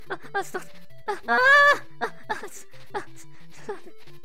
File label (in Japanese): ダメージボイス; 悪魔城ドラキュラ(FC版)